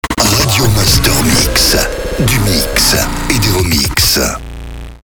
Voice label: Sweeper SLOW MEDIUM; Radio Master MIX; Habillage